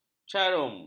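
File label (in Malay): "carum", Malay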